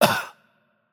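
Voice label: Hurt Light, Romanian; bloom minigame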